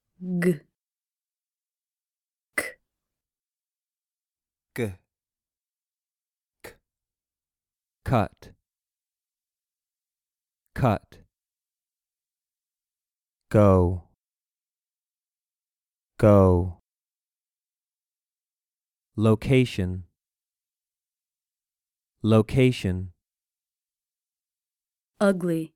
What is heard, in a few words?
The G g and K k Consonants